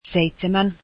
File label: seven, finnish